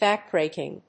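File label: "backbreaking", English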